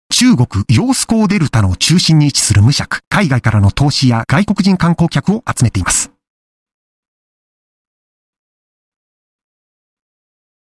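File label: Japanese Corporate Male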